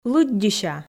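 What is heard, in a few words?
"лыддьыся", Komi, female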